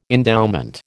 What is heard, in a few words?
"endowment", American